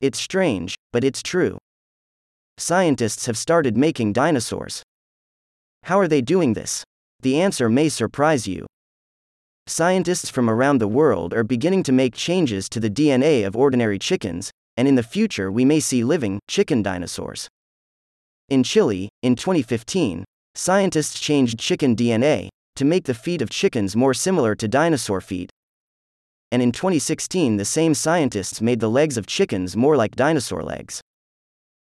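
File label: American; chicken dino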